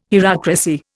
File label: "hierocracy", Indian